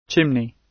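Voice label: "chimney", British English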